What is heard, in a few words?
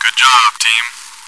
cheer; svencoop